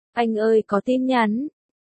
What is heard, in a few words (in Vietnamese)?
Nhac chuong Anh oi co tin nhan